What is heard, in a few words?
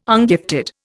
"ungifted", Indian